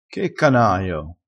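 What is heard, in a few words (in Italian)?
"canaio", dialettale crespinese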